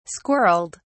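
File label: "squirrelled", British English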